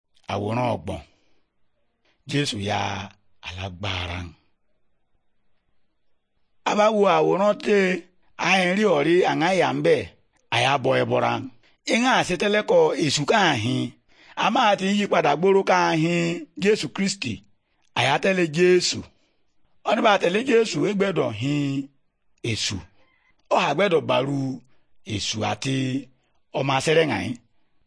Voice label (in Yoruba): good news yoruba, bible